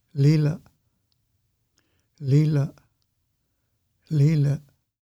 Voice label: Salmonberry Fruit Musqueam name pronunciation